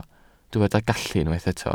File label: "gallu", Welsh